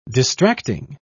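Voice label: "distracting", British English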